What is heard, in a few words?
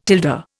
"tilde", Indian